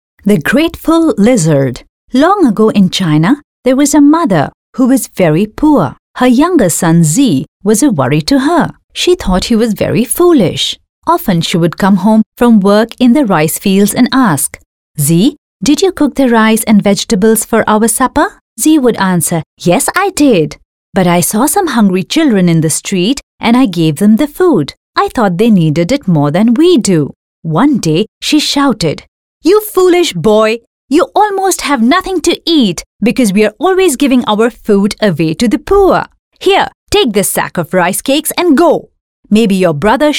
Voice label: female voice English Elearning